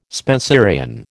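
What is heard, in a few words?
"Spenserian", American English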